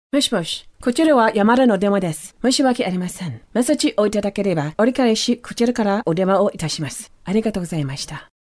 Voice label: Japanese Intermediate Level Telephony